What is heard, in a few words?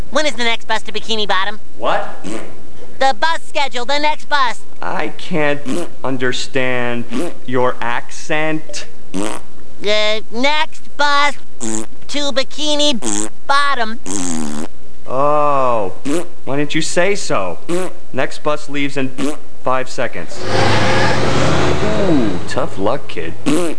accent